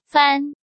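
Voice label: "Fān", Chinese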